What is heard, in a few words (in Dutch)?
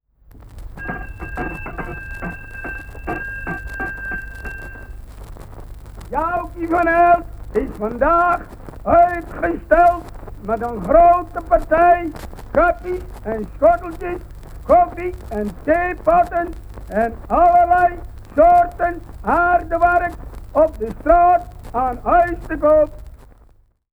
urker omroeper